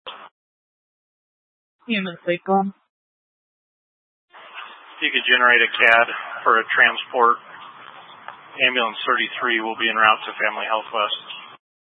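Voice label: Incident Tac 3, Scanner audio recording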